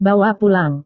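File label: "bawa pulang", Indonesian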